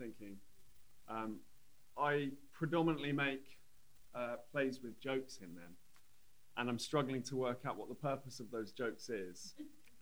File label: Comedy in Politics Panel, after noise reduction; Alchymy; theatre festival of new work